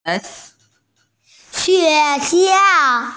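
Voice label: "school", hearing impaired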